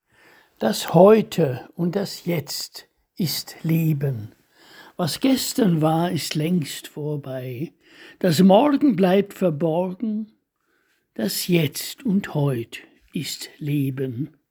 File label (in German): Das Jetzt, Gedichte eigene Lesung